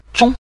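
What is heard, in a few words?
"zhong", Mandarin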